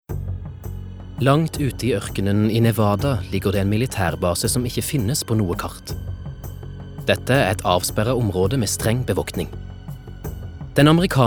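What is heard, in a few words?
Norwegian documentary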